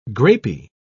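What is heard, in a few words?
"grapey", British English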